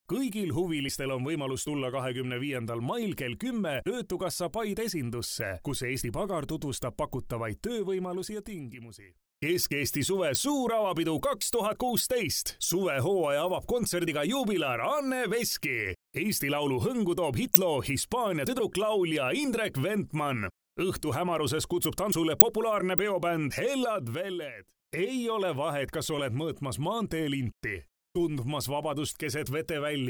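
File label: Estonian, male; Professionelle Sprecher und Sprecherinnen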